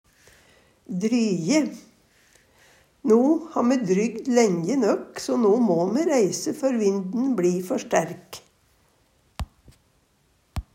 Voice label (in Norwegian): "dryje", Numedalsmål